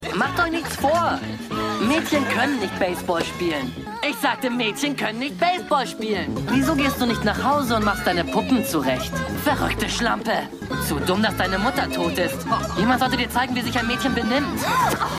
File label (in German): Poebelnder Junge